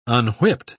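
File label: "unwhipped", English, British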